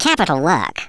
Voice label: "excellent", Speech; Worms Armageddon